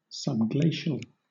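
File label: "subglacial", British English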